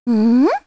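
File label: Vox Deny, korean; Hanus; kingsraid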